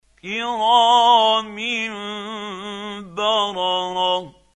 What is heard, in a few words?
quran, Hussary, tafseer; Abasa